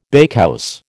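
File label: "bakehouse", American English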